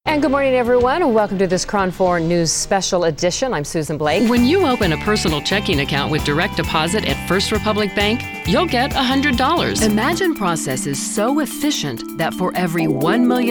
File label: Voice Over